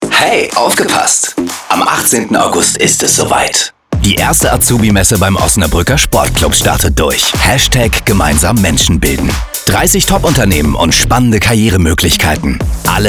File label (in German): Radiowerbung